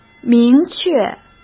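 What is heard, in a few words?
"明确", Mandarin Chinese; chinese-flashcards; hsk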